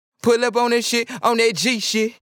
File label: dry rap; pitchcure; saint mike dsp